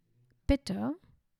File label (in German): "Bitte", German